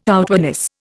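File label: "outwardness", Indian English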